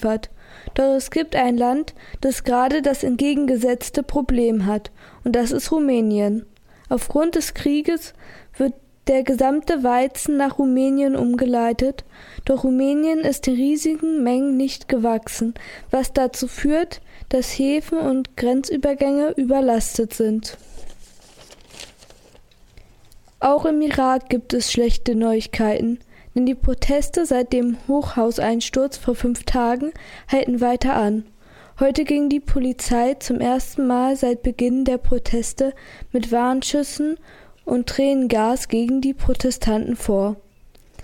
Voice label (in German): Radio Nachrichten